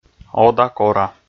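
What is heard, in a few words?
"ода-кора", Komi, male; kpv audio dict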